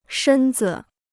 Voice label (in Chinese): "身子", Chinese